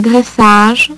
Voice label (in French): "graissage", French; HyperSound™2